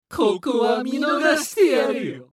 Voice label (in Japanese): 化物人ボイス, 逃げる2; 戦闘ボイス